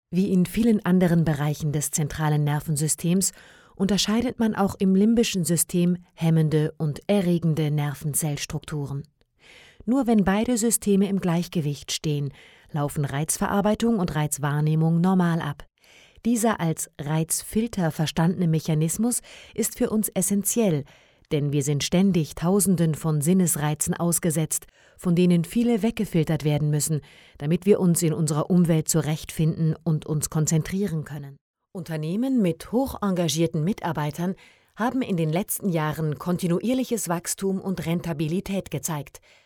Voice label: E-Learn Mix